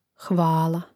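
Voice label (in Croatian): "hvala", hrvatski; mreznik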